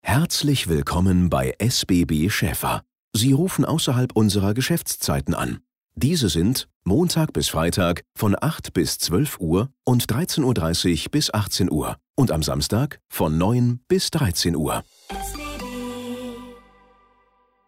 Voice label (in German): Ansage